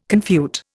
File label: "confute", Indian